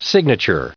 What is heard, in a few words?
"signature", en-us